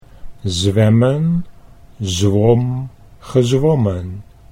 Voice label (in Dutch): "zwemmen zwom gezwommen", Dutch